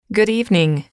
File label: "good evening", English